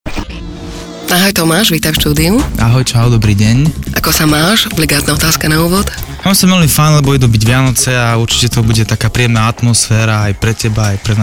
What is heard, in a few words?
slovak